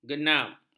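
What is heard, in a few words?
"genap", Malay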